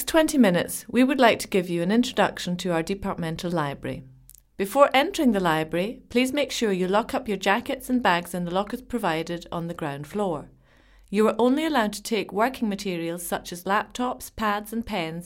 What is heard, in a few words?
audioguide english; bibliothek; station 1